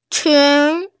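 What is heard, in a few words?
"qun2", Mandarin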